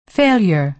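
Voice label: "failure", American English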